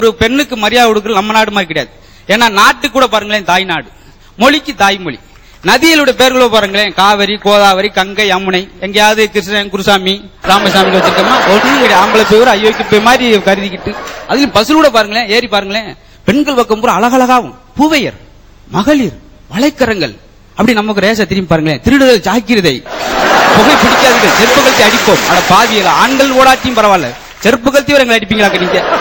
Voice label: Tamil Comedy